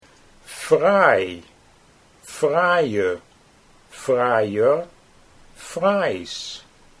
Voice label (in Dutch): fraai fraaie fraais, Dutch